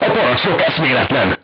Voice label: voice message commander contused, crew tanks hungarian